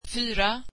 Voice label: swedish four

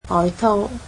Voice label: "jacket", Cantonese

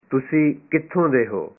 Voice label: Where are you from, punjabi

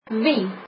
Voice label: who, afrikaans

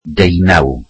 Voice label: welsh eighteen

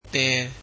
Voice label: you, burmese

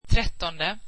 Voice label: swedish thirteenth

